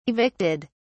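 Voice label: "evicted", British English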